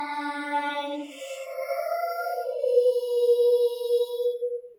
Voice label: Creepy Girl Saying Im Coming

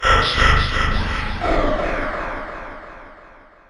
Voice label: Creepy Whisper

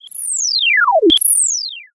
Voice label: Ai Warns You

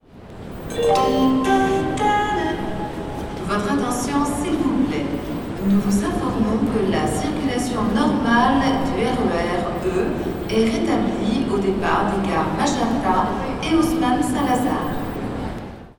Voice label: Announcement Station Annonce Gare De L Est Paris

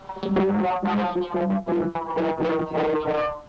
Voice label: Robotic Voice

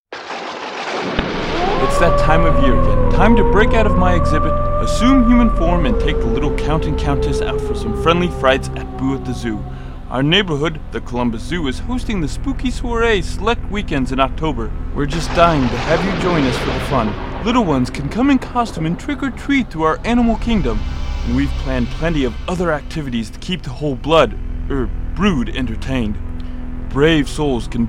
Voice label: Zoo One Minute Radio Commercial

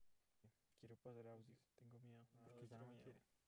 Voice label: Whispers

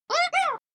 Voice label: Cute Creature Look Out

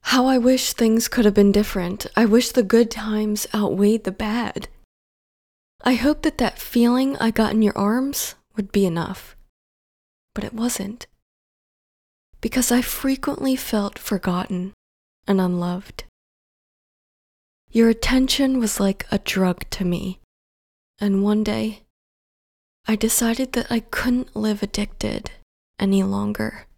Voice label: Spoken Word Poetry Female Vocal

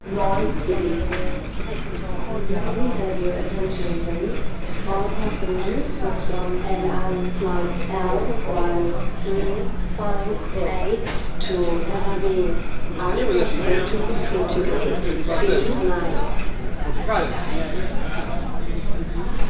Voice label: Airport Announcment

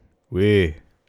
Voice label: "Oui" Yes French Male

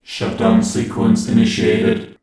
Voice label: Robot Shutdown Sequence Initiated

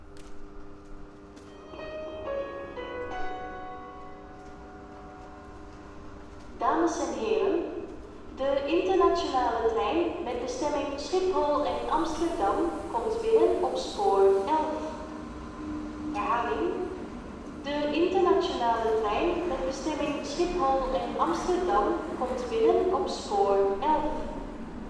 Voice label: Announcement Internationale Trein

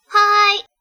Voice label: "Yes", Japanese

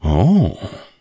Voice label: "Oh" Male

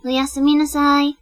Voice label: "Goodnight", Japanese

